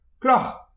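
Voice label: "kloch", Breton; petit precis de prononciation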